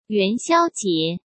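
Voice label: "元宵节", Mandarin Chinese; hsk; chinese flashcards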